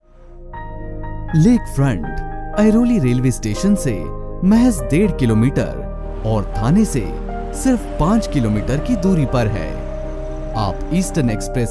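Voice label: Hindi Corporate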